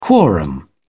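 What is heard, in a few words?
"quorum", English, uk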